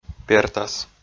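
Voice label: "пертас", Komi, male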